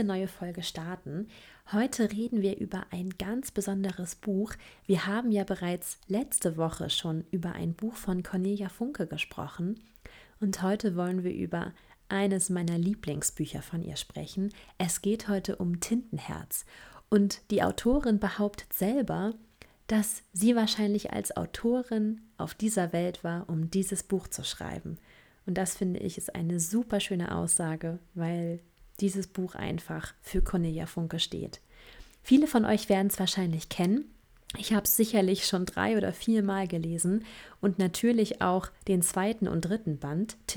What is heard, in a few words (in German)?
Podcast; Sprechen